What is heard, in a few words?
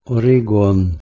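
"h3regh3on", Proto-Indo-European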